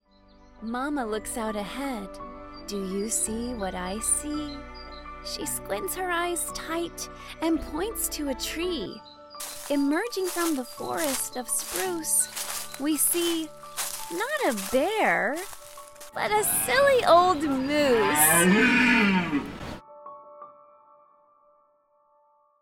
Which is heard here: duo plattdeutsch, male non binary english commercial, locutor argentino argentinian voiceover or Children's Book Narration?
Children's Book Narration